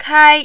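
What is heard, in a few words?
"kai", Mandarin, first tone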